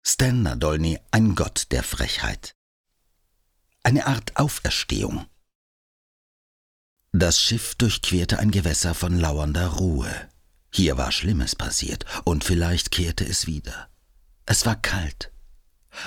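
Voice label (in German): Sprachcasting Hörbuch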